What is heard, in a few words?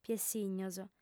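"piessìgnos", Sardinian, female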